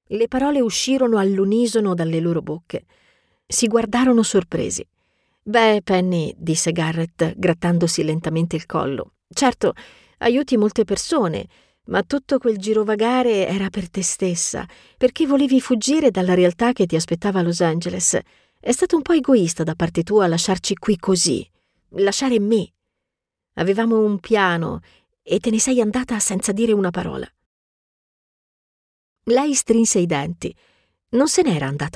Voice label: Italian female, audiobook

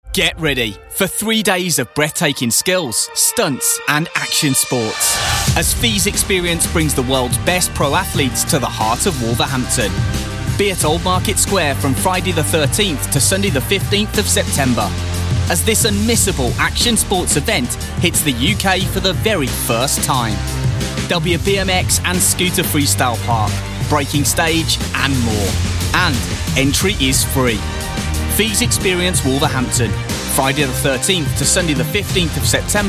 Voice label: brummie accent; bimringham

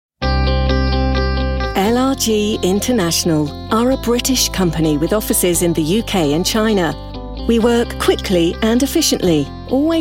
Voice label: b2b corporate

